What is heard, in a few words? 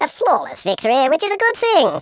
"flawless", Patronising